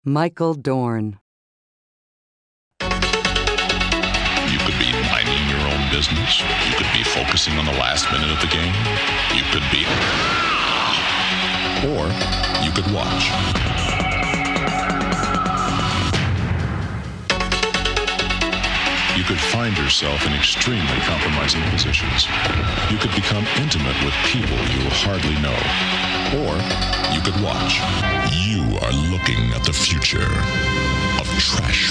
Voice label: commercial; Innovative LA